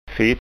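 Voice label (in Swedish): "fitt", framtidsskånska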